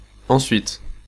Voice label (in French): Then, French, male